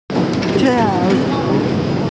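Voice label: "tiao4wu3", Mandarin Chinese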